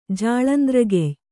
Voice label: "ಜಾಳಂದ್ರಗೆಯ್", Kannada